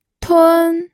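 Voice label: "tun1", Mandarin Chinese, pinyin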